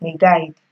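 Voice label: "maiguard", Nigerian Pidgin, female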